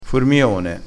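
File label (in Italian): "formione", dialettale crespinese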